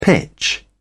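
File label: "pitch", British English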